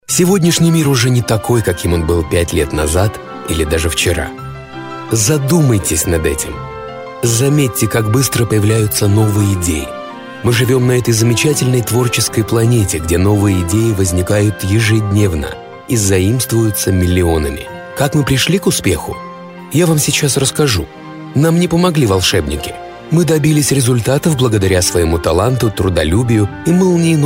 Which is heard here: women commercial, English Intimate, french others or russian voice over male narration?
russian voice over male narration